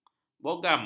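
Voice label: "bogam", Malay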